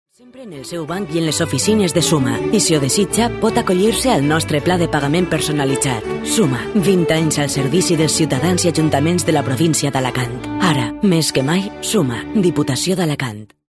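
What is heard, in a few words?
Catalan, female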